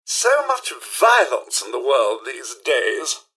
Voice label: observe combat, robot; mrhandy

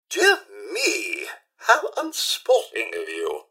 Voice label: generic robot murder; mrhandy; npc

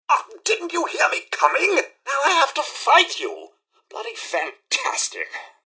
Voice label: generic robot alert to combat; mrhandy; npc

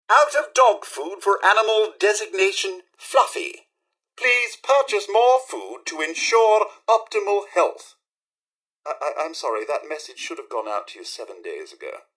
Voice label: robot talk; mrhandy

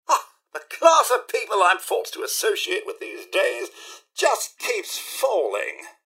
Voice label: steal, robot; mrhandy

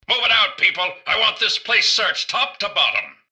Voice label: generic robot normal to alert; mrgutsy; npc

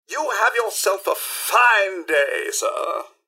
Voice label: robots dialog goodbye; mrhandy; npc

